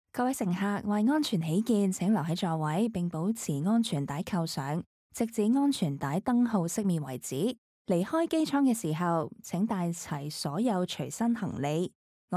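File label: Announcement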